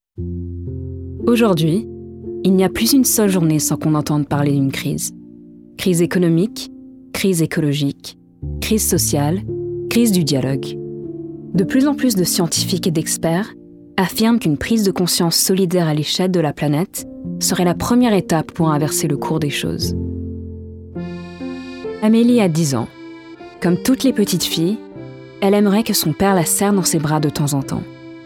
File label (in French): French Voice